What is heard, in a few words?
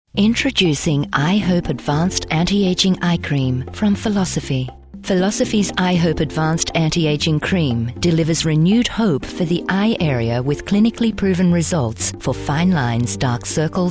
british accent compilation